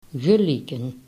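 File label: "geleken", Dutch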